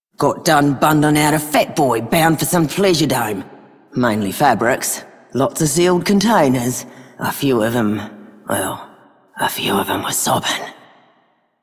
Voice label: female worker; Female Two; en; Dialog; Warframe; Solaris Venus

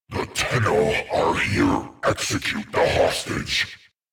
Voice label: Rescue Approach Alert Grineer Male; Warframe; Generic Missions

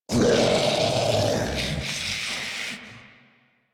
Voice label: Spawn Grineer Ghoul Devourer, en

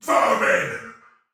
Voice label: Attack Normal Soldier Male, Battle; Sinecure

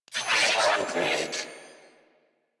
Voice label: Attack, Female; en; Warframe; New War; Narmer Grineer

